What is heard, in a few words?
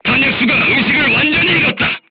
voice message charger contused, korean, crew tanks